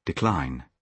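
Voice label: "decline", British English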